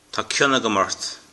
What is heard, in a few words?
i love you, Irish